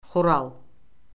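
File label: "xural", Azerbaijani; Orfoqrafiya Lüğəti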